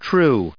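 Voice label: "true", US English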